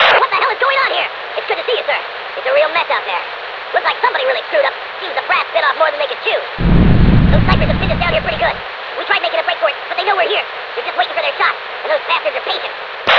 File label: radio intro; svencoop; sc toysoldiers